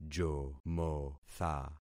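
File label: "jomotha", concatenated syllables; Affixing experiment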